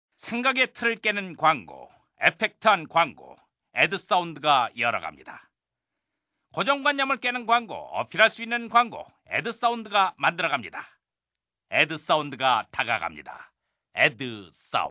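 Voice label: Korean male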